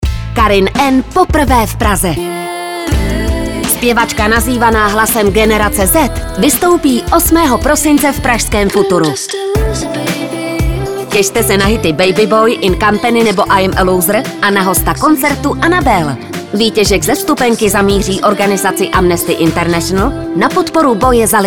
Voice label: radio; evropa2